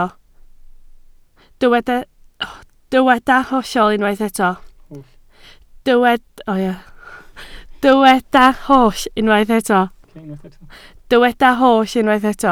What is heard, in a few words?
"holl", Welsh